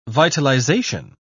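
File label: "vitalization", English, British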